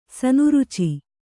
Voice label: "ಸನುರುಚಿ", Kannada; sanuruci

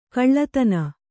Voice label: "ಕುಲ್ಲತನ", kannada

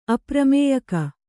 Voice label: "ಅಪ್ರಮೇಯಕ", Kannada

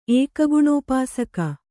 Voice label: "ಏಕಗುಣೋಪಾಸಕ", Kannada

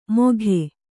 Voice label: "ಮೋಘೆ", Kannada

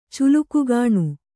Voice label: "ಚುಲುಕುಗಾಣು", kannada

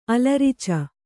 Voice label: "ಅಲರಿಚು", kannada

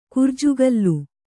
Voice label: "ಕುರ್ಜುಗಲ್ಲು", kannada

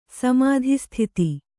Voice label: "ಸಮಾಧಿಸ್ಥಿತಿ", Kannada

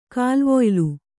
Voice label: "ಕಾಲ್ವೊಯ್ಲು", Kannada

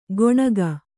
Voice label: "ಗೊಣಗ", Kannada

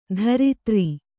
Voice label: "ಧರಿತ್ರಿ", Kannada

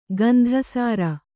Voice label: "ಗಂಧಸಾರ", Kannada

